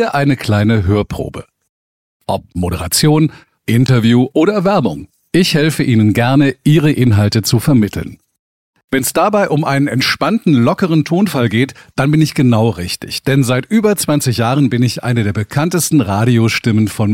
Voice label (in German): Hoerprobe, podcast moderator; Stimmen, die Geschichten lebendig machen; podcast-eins